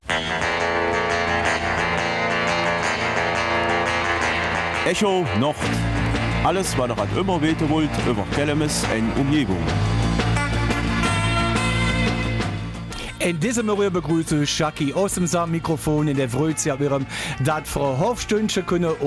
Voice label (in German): Kelmiser Mundart